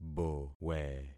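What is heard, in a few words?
"bowe", concatenated syllables; Affixing experiment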